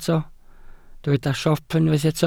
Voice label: "siop", Welsh

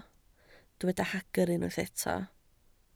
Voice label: "hagr", Welsh

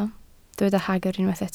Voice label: "hagr", Welsh

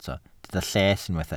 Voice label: "lles", Welsh

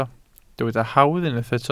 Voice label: "hawdd", Welsh

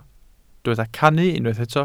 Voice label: "cannu", Welsh

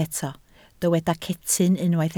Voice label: "cetyn", Welsh

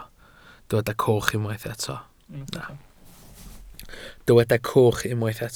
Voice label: "coch", Welsh

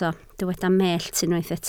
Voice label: "mellt", Welsh

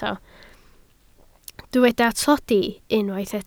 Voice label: "tlodi", Welsh

